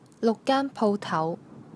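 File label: "gaan1 pou3tau2", Cantonese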